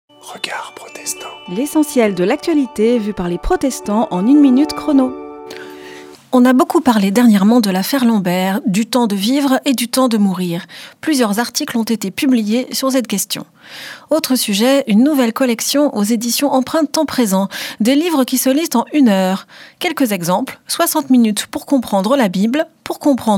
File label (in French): Spot radio; Regards protestants